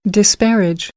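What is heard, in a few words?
"disparage", American English; AmericanBritish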